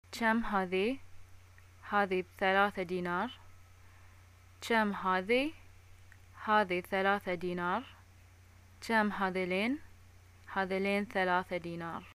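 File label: shopping how much is, Bahraini arabic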